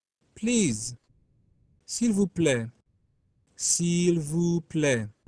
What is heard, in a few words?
French Please